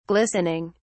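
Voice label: "glistening", British English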